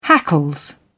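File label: "hackles", British English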